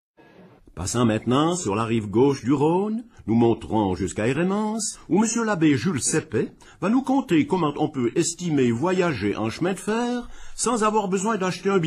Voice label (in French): patois d Heremence; patois valaisans; Les tsasses et le billet du car